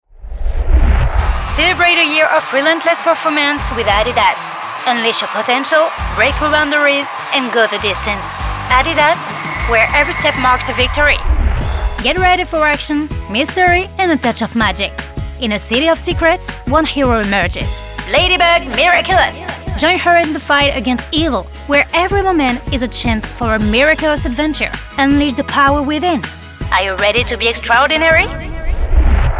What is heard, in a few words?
ENGLISH VO